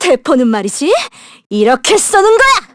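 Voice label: Vox Skill, Korean; Miruru L; kingsraid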